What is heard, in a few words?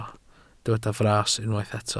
"fras", Welsh